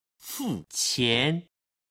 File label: "fu qian", Chinese